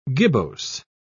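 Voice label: "gibbose", British English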